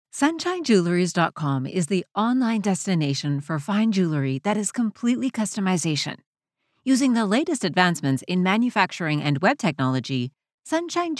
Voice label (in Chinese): 珠宝店广告大气